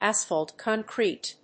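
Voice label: "asphalt concrete", English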